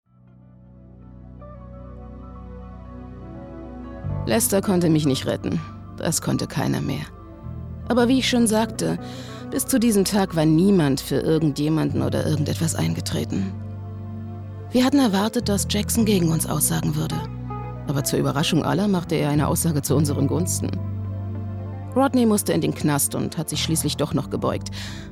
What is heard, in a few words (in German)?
synchron